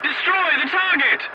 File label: voice message attack target, english; War Thunder